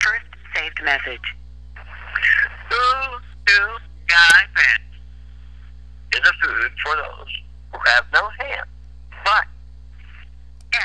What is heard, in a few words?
Strange Voice mail